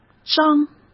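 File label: "章", Mandarin Chinese; chinese-flashcards; hsk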